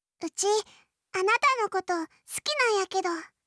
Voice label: 好きです 三重; 伊織

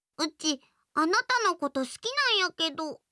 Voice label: 好きです 三重; 亜美

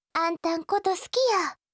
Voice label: 好きです 和歌山; あずさ

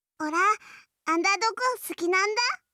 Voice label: 好きです 宮城; 伊織

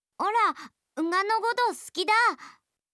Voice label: 好きです 岩手; 律子

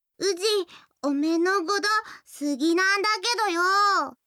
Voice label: 好きです 山形; やよい

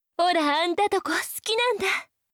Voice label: 好きです 宮城; 貴音

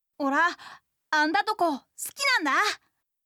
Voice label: 好きです 宮城; 真

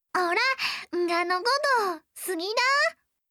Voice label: 好きです 岩手; 雪歩

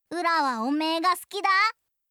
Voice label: 好きです 福井; 響

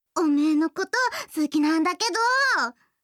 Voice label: 好きです 茨城; やよい

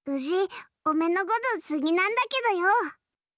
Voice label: 好きです 山形; 伊織

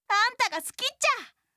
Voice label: 好きです 大分; 真

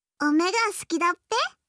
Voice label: 好きです 栃木; 伊織

